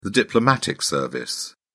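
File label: "diplomatic service", British English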